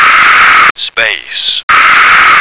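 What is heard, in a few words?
"space", American English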